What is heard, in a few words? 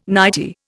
"nightie", Indian